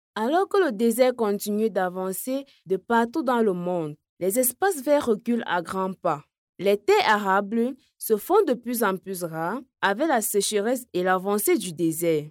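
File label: African French, female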